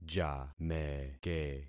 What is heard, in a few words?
"jameke", concat syllables; Affixing experiment